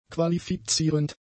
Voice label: "qualifizierend", German; adjectives